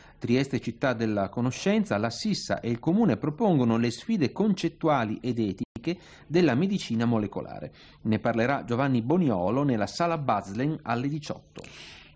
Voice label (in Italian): Rai Radio 1 gr regionale